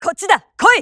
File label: japanese Moving; azurlane-hoi4; azurlane-tj